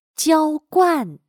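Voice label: "浇灌", Chinese; Verter; regar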